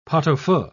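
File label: "pot-au-feu", British English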